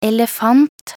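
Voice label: "elefant", Norwegian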